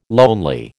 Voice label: "lonely", American

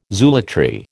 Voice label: "zoolatry", American

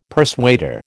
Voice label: "persuader", American

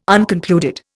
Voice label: "unconcluded", Indian

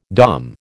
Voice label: "Dom", American

